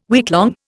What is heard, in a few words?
"weeklong", Indian